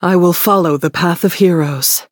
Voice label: level up, en; cookie run